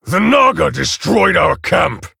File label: Male Orc Ogre